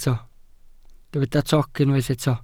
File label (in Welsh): "toc", Welsh